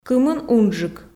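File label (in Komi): "кымын унджык", Komi, female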